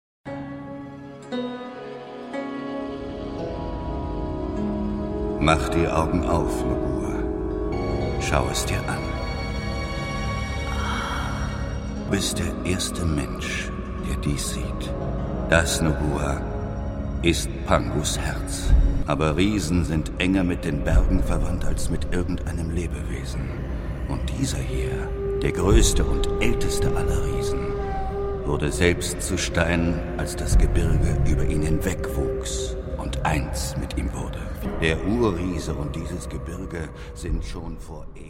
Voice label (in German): hoerspiel